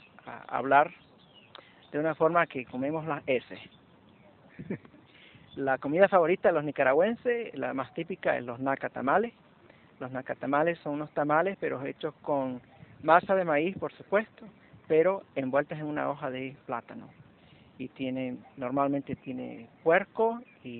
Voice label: Nicaragua Male

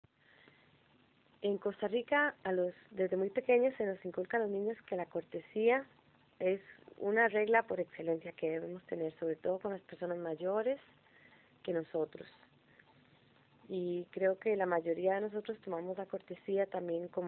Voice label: Costa Rica Fem

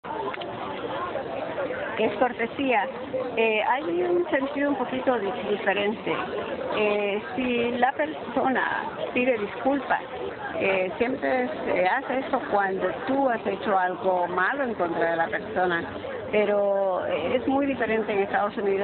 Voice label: Colombia Barranquilla Female

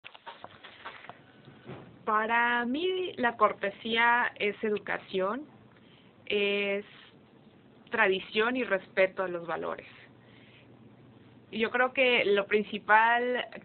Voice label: Mexico City Politeness Fem

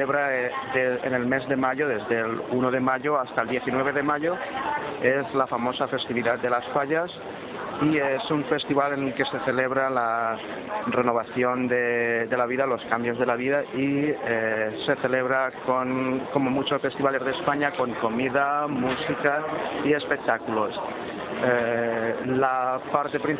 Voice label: Spain Valencia Male

